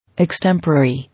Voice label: "Extempore", English